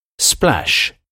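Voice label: "splash", English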